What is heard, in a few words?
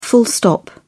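"full stop", British English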